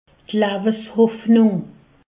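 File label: "leweshoffnung", Haut Rhin; medecine; espérance de vie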